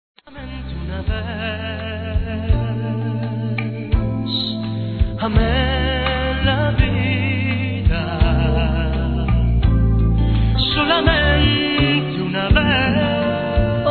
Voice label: Aniversário Avô Infantil Feminino; Telemensagens

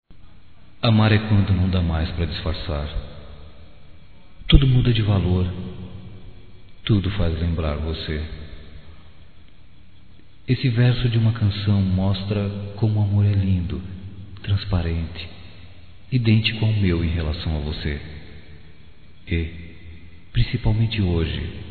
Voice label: Aniv Romântico (M), masc; Telemensagens 2017; Aniversarios

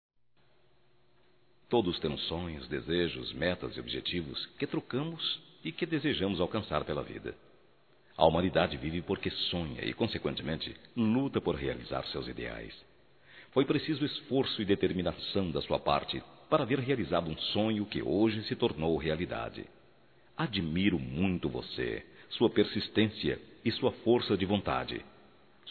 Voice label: VITóRIA, masc; Telemensagens 2017

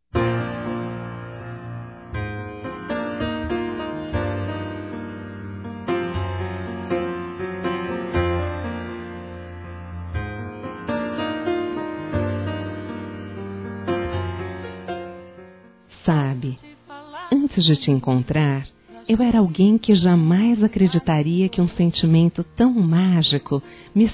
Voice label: O SENTIDO DO AMOR, Românticas, fem

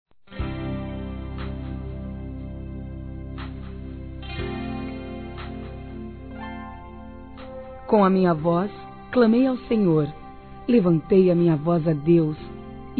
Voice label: OTIMISMO, Gospel, fem; Telemensagens; Telemensagens 2017

